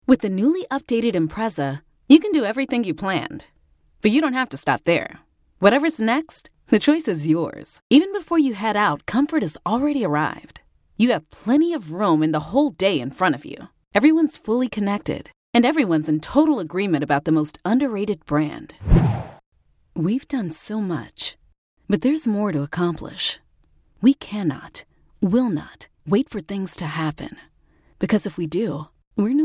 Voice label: Corporate Explainer

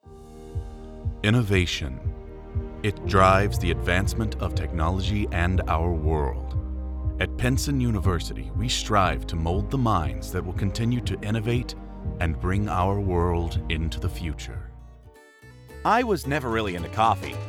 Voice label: Commercial Demo

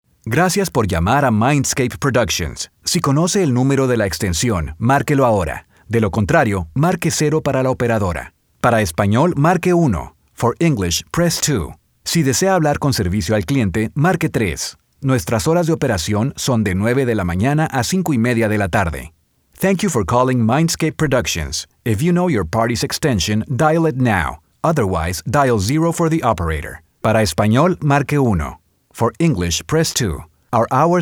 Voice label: IVR

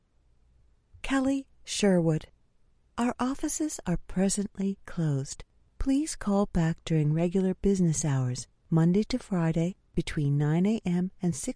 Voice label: Telephony

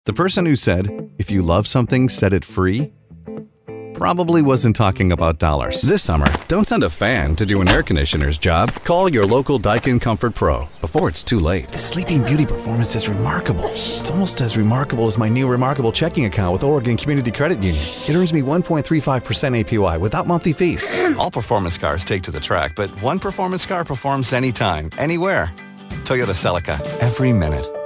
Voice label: Conversational Genuine

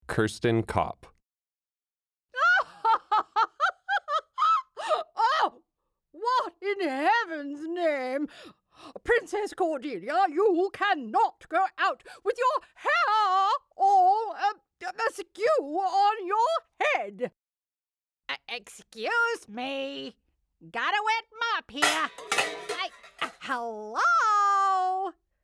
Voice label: Animation

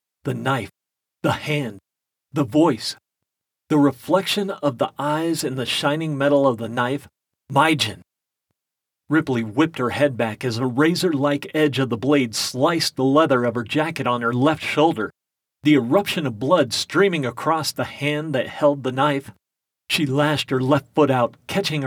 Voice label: retail audio sample

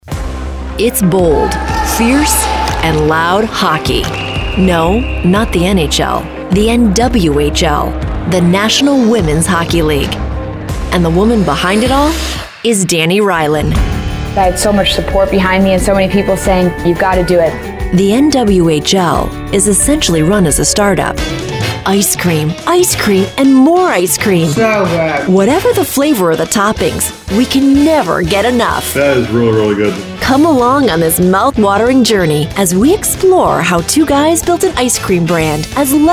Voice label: In Show Narration

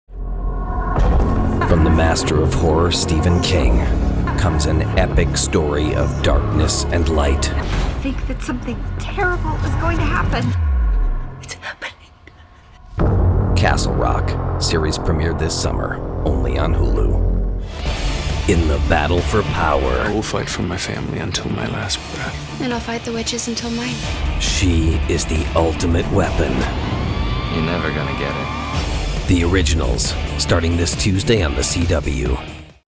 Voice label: dramatic promo

